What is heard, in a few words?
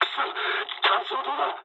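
searchlite mood low, speech japanese; War Thunder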